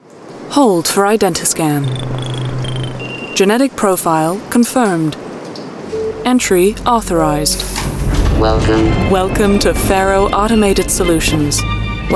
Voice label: Computer Games, london